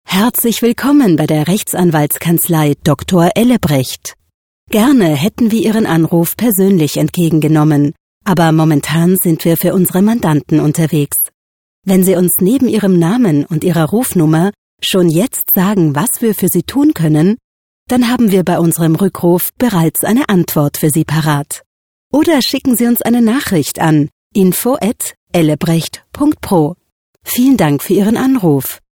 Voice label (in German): telefonansagetexte, Sprecherin; Telefonansage Rechtsanwaltskanzlei